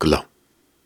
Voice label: Soft Syllables and Imaginary Words, Viking Vocal